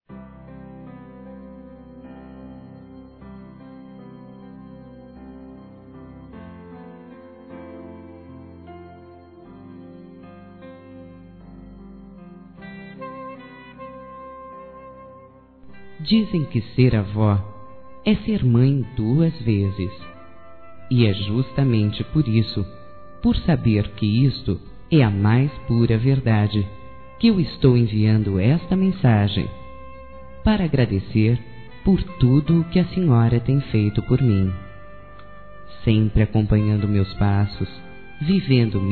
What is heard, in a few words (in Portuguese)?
AVÓ F; Telemensagens 2017